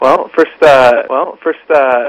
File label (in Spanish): Distorsion voz pre post